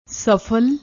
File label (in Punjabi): "sfal", Punjabi